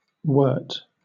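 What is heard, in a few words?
"wort", British English; pronunciations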